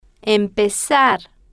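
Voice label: "empezar" Spanish, female, slow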